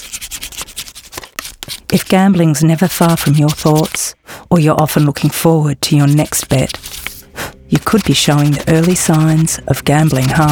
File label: Radio Instant; Spot the Harm. Stop the Harm.; Radio Advert - Instant